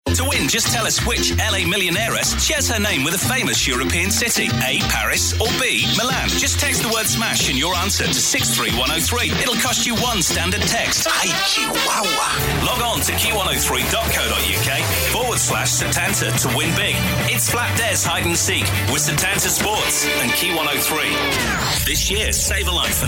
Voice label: Radio Promo

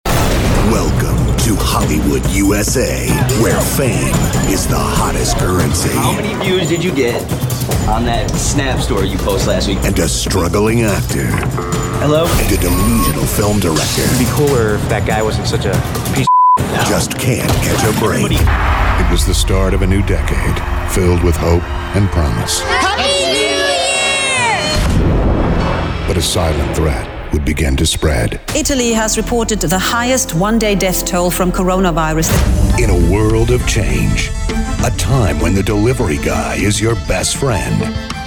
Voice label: trailers

